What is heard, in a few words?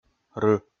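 "ры", Komi, male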